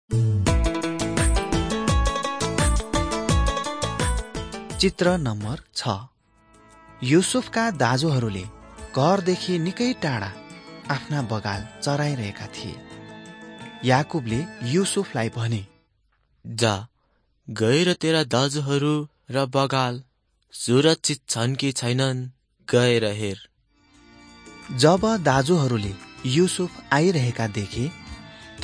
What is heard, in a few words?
mighty men of god nepali